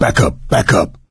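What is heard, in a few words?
back up quiet, voice, male; Left 4 Dead 2; coach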